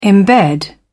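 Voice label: "imbed", British English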